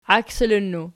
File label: "iaksilinnu", Archi